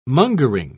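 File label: "mongering", English, British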